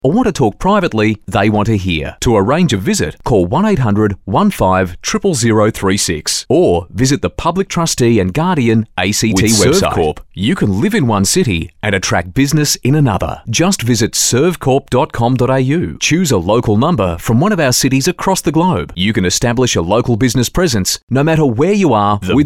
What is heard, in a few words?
Soft Sell